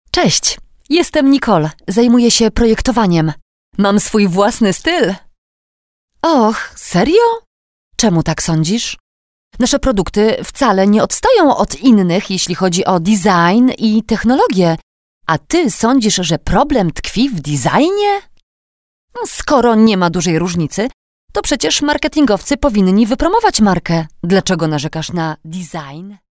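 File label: PL F narration, Polish, female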